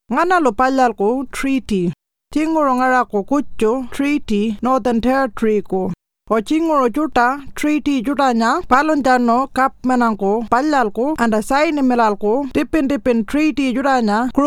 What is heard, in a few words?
Pintupi Luritja; Who Makes a Treaty